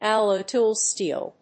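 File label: "alloy tool steel", English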